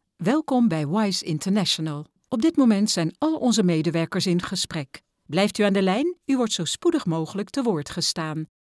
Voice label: IVR; Wise